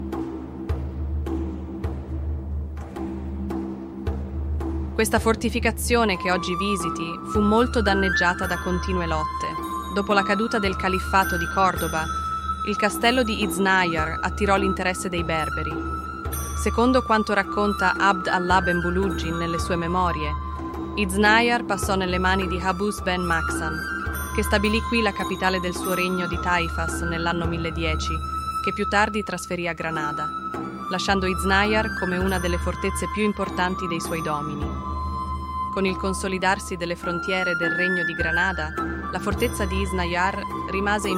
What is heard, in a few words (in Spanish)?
audioguia italiano; Ruta audioguiada